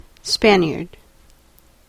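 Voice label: "Spaniard", American English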